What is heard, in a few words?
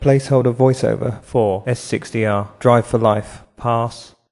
large congrats, VoiceOver; Volvo Drive For Life